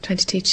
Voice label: tryIng to teach, Scots